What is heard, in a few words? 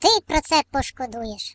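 "You'll regret that", British English; Default uk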